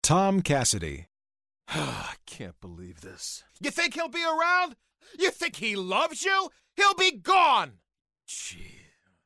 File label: ANGRY; Angry Mad Upset